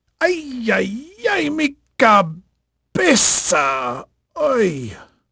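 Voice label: Spanish male, voice; Pirates Of The Caribbean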